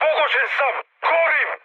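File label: Serbian on fire mood high, speech; War Thunder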